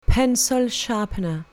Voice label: "pencil sharpener", English; colour english; classroom objects

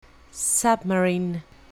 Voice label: "submarine", English; colour english; means of transport

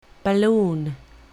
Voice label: "balloon", English; colour english; means of transport

